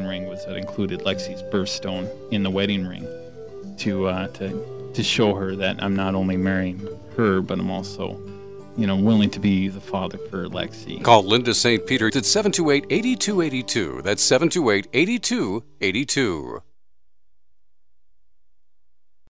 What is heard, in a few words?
Adoption Radio Ad; St Peter